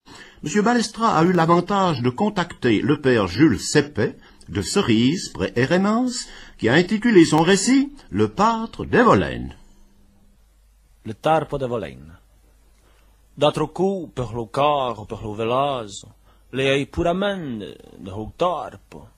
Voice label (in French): patois d'Heremence; la patre d'Evolene; patois valaisans